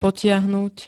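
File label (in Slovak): "potiahnuť", Slovak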